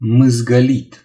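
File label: "scribble", Romanian; gcompris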